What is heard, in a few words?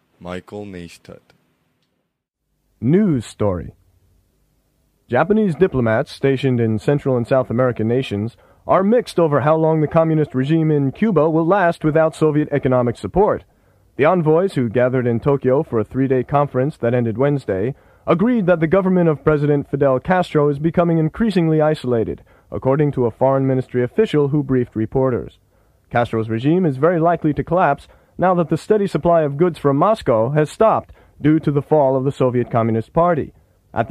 usa man